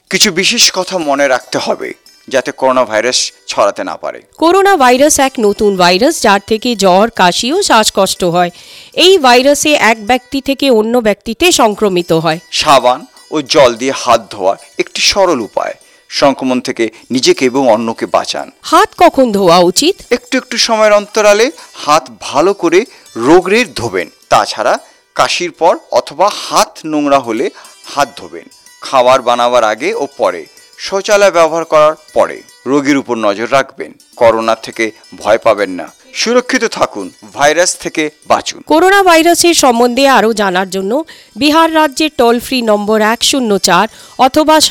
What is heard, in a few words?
Bangla Radio Spot hand washing; Covid19